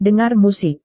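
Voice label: "dengar musik", Indonesian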